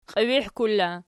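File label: "qiibihkul" inessive, Archi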